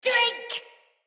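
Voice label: Attack Corrupted Sprouts, en; Battle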